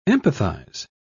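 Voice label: "empathise", British English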